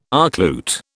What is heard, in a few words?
"archlute", British